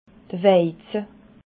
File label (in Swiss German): "weize", alsace